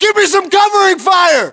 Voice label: order action cover me, battlechatter us

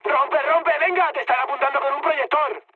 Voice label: searchlite, mood high, speech; War Thunder

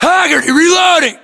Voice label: inform reloading, US

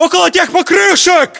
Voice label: landmark near tires, ru; localized english

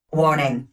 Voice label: warning, Tone Voice; Titanfall 2

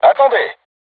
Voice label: voice message art reloading, crew tanks french

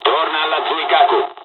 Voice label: mission fly, dialogs italian

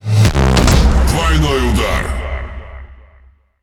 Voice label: Ru Speech Double Kill; World Of Warships

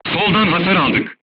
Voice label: voice message shot left, crew tanks turkish

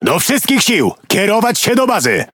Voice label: Speech Quick Commands Enemy Base, PL; World Of Warships

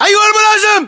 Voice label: rank lieutenant, localized english battlechatter

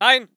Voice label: voice ger infantry

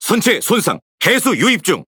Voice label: Speech Alarms Critical Flooding, KO

